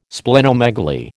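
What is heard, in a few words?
"Splenomegaly", American English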